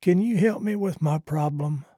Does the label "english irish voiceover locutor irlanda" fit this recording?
No